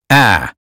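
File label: American phonetics sound hat; 英语音标发音（剑桥版）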